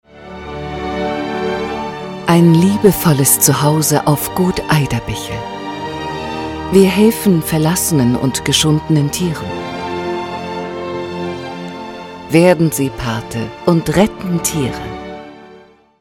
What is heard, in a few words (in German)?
TV Spot; Gut Aiderbichl